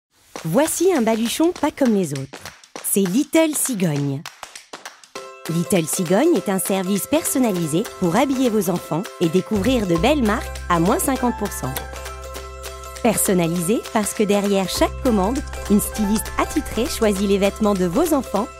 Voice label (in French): pub Français, advert (French); Little Cigogne